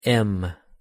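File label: "m", English; pronunciation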